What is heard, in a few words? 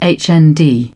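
"hive", British English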